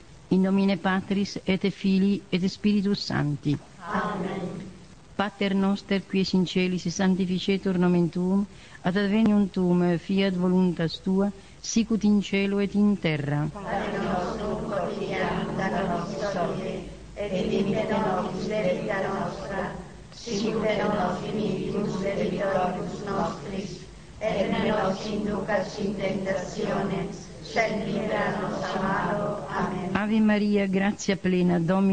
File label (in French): PRIERES latines pour religieux